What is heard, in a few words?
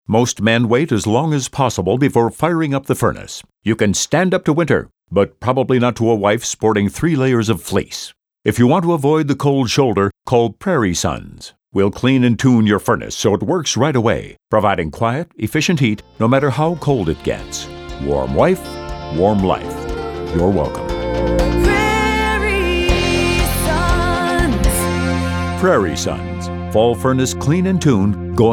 radio; Furnace Fall